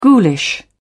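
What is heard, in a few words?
"ghoulish", British English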